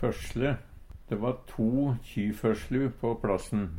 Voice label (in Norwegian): "føsjLe", Numedalsmål